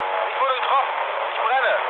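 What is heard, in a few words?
German on fire mood low, speech; War Thunder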